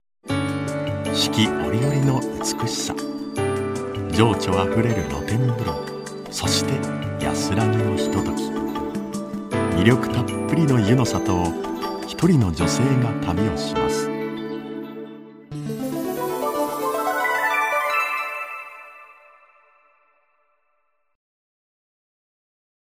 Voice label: narration